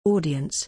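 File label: "audience", English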